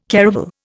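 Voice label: "caravel", Indian English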